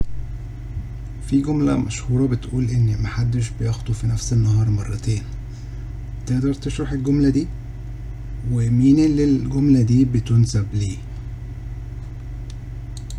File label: arabic prompt; aya expanse